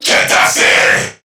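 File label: Player Spotted Crewmen, English; Dialog; Barks; Battle; Warframe; CorpusCrewmen; CrewmanA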